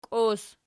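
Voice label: "kios" nominative, Archi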